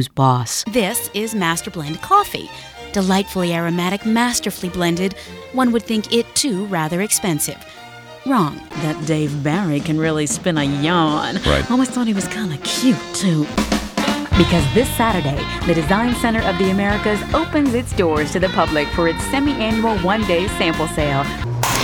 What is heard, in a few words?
COMMERCIAL